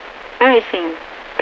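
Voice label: "icing", English; Mi-8MT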